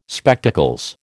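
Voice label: "Spectacles", American English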